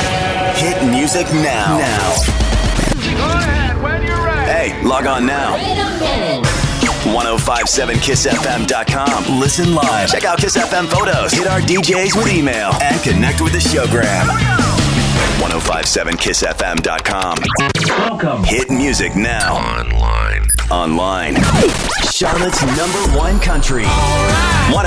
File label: Radio Imaging